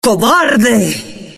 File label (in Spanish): cobarde mujer